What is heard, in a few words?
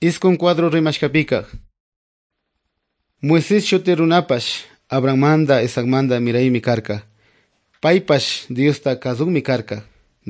good news quichua otovalo